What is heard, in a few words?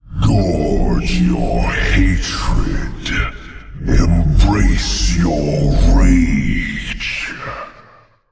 Male Old God Play